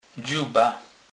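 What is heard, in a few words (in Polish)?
"dzuba", Kurpie dialect, Polish; slownik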